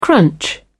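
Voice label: "crunch", British English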